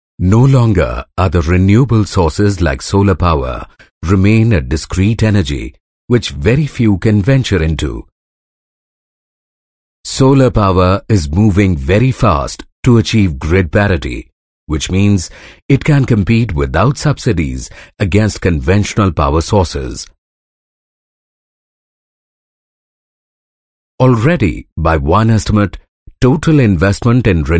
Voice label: english, male voice

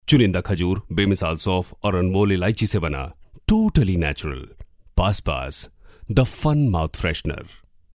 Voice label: male voice

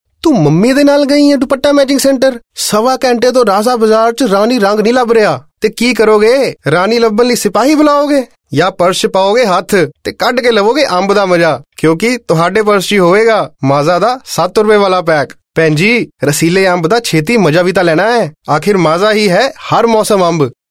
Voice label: character Punjabi, male voice; Maaza

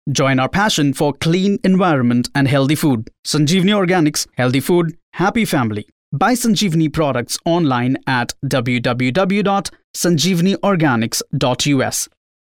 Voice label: eng, male voice

